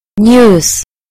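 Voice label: "news", British English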